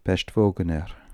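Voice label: "Peshtvogner", pronunciations; Fourth Way; Beelzebub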